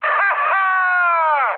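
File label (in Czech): Czech trick, mood high; speech; War Thunder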